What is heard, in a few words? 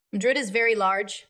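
VoiceActing Spanish, female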